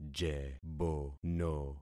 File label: "jebono", concat syllables; Affixing experiment